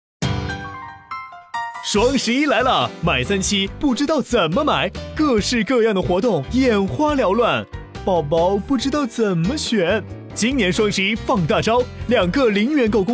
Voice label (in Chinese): 男 年轻 活跃; 飞碟说